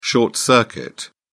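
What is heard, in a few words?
"short circuit", British English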